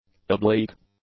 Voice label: "oblique", english; adjectives